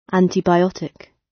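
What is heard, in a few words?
"antibiotic", British; Time, history; Thời gian, lịch sử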